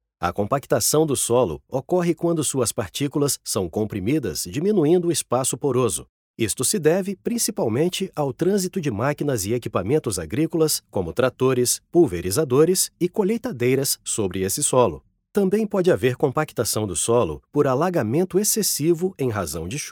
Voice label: PT-BR; Soil Biology and Compaction Final